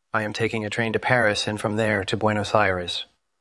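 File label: Voice Acting, Spanish, male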